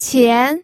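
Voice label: "qian", Mandarin Chinese, second tone; pinyin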